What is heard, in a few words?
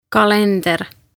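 "Kalender", Estonian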